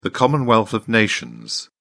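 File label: "commonwealth of nations", British English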